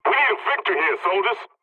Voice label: pilot mission start, english; War Thunder